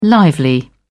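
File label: "lively", British English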